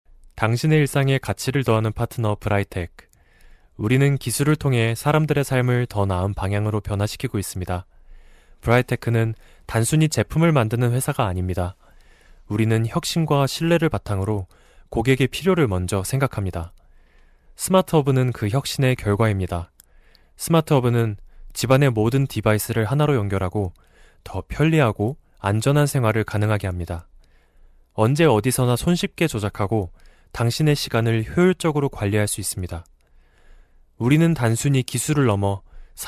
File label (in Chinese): 韩国男声